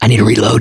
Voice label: reloading quiet, mechanic, survivor, voice